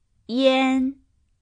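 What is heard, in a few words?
"ian", Mandarin Chinese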